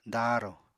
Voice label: "daarò", Apatani